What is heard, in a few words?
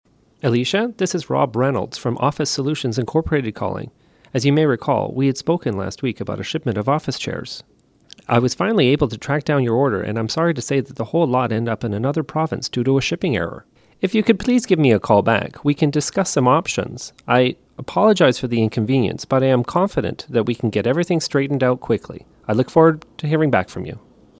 voicemail message, missing shipment of chairs